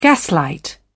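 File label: "gaslight", British English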